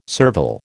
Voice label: "servile", American